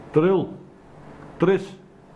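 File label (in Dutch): "Terril", dialect; Terril - Tris; Steenberg, steenstort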